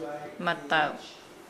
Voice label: Nukuoro; right

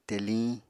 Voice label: "teliñ", apatani

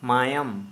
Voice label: "Blood", Santali

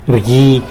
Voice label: "rgui", tlacolula; gets sour

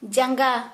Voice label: "Foot", Santali

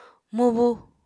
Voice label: "mubu", Apatani

